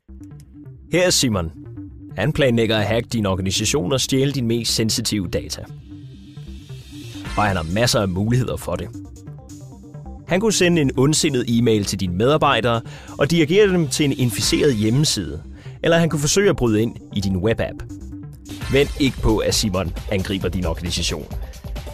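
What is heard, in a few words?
Danish Commercial; GoLocalise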